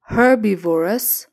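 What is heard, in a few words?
"herbivorous", English; grade3; animal feeding habit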